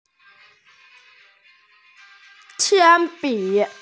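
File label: "qian bi", Mandarin Chinese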